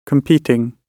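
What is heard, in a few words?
"competing", British English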